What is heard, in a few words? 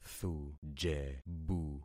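"thujebu", concatenated syllables; Affixing experiment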